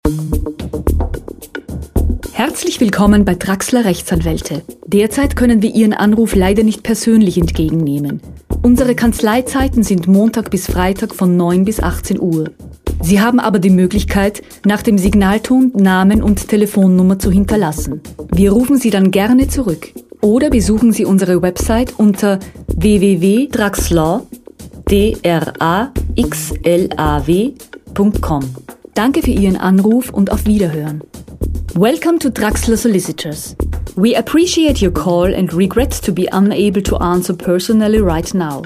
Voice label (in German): Telefonansage; Sounddesign